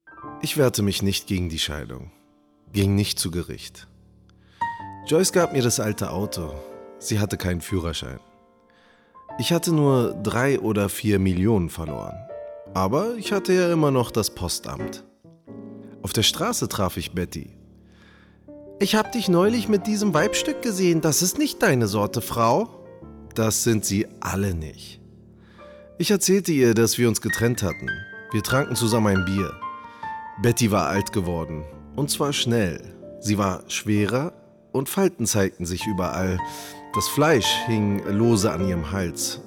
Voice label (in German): sprecher hörbuch